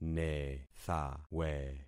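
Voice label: "nethawe", concatenated syllables; Affixing experiment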